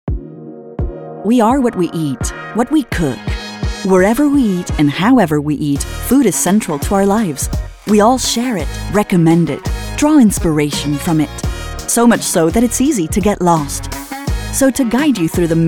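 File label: Narrative International English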